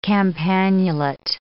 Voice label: "campanulate", English

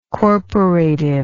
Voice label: "corporative", English

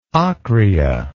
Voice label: "ocrea", English

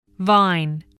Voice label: "vine", English

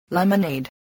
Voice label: "lemonade", spelling

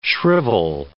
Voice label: "shrivel", words

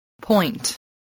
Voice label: "point", English

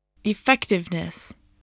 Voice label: "effectiveness", English

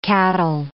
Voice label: "cattle", English

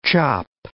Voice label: "chop", English

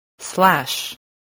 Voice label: "slash", voice spelling

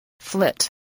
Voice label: "flit", spelling

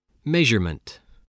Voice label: "measurement", spelling voice

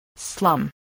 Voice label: "slum", voice, spelling

